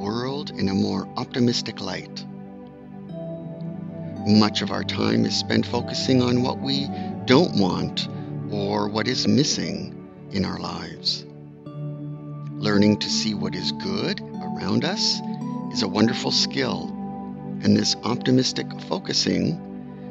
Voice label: Sleep Gratitude Meditation with Music